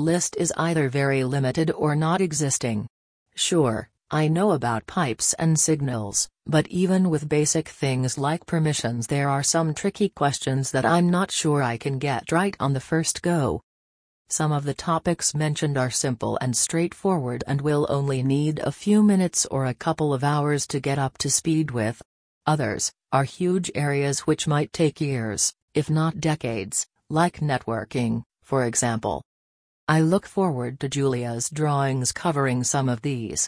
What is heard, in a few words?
english us linux